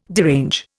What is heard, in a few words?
"derange", Indian